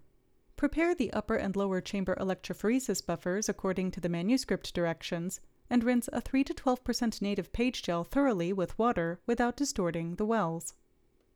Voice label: Voiceover